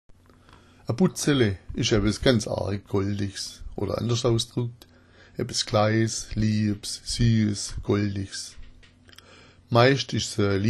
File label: butzele, schwaebisch